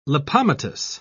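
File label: "lipomatous", British English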